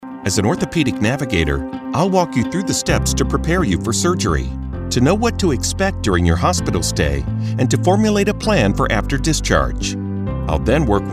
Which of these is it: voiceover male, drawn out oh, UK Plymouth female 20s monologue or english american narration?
english american narration